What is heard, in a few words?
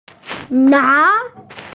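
"na2", Chinese, F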